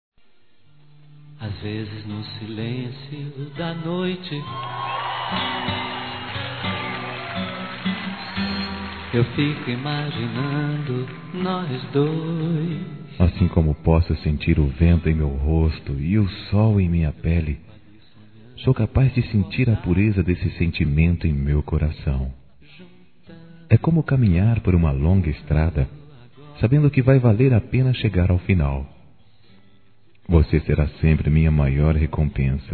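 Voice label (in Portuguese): ROMÂNTICO Masculino, Telemensagens; Telemensagens 2017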